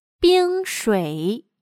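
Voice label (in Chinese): "冰水" Mandarin Chinese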